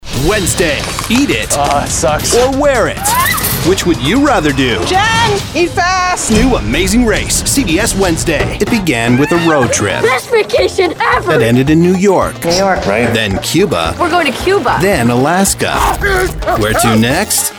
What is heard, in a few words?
tv promo